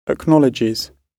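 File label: "acknowledges", British English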